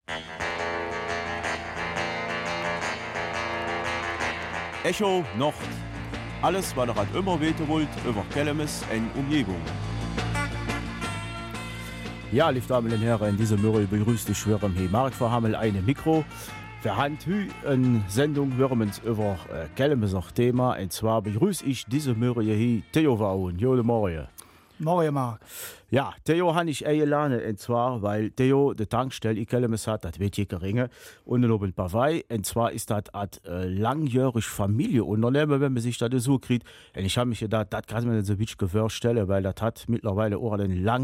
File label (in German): Kelmiser Mundart; 86 Jahre Firma Ohn in Kelmis